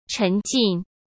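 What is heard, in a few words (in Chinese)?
"沉浸", Mandarin Chinese; hsk; chinese-flashcards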